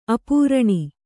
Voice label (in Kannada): "ಅಪರ್ಣ", kannada